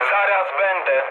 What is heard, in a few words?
near waypoint mood high, speech pol; War Thunder